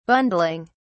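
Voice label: "bundling", British English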